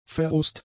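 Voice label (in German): "verrußt", german; adjectives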